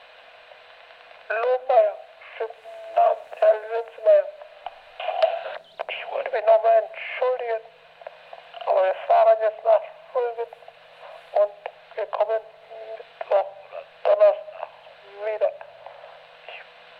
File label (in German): anrufbeantworter aufnahme